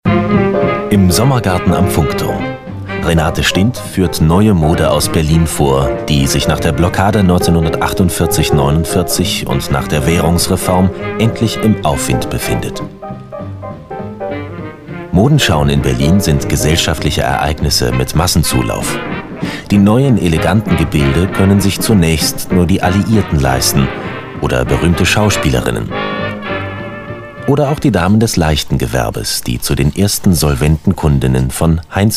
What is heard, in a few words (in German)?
werbung, deutsch maenner